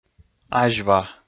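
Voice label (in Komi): "азьва", Komi, male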